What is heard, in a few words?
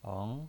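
"eng" Mandarin Chinese, second tone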